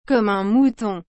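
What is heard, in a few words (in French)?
"comme un mouton", French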